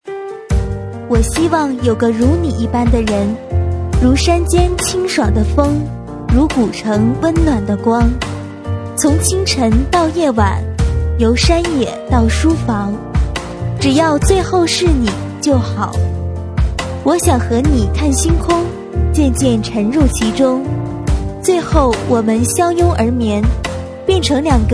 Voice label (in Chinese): 表白 深情; 我希望有个如你一般的人